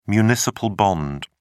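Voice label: "municipal bond", British English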